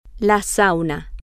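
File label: sauna, Spanish, female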